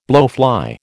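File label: "blowfly", American English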